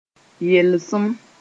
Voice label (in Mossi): "yelsem", moore